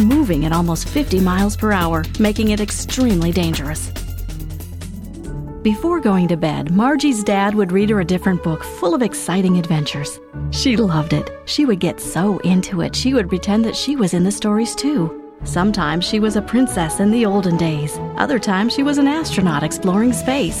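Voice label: Narration, American voiceover actor who speaks English with a neutral accent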